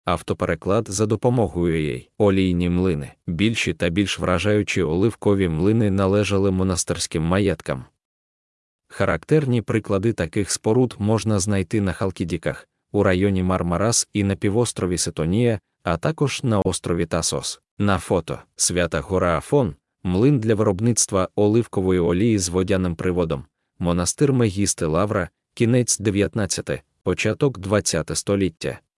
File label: ukrainian